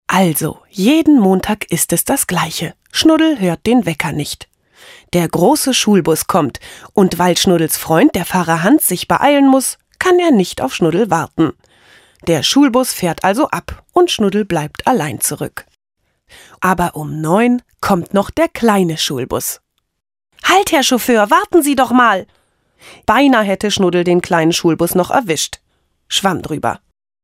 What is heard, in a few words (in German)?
Kindergeschichte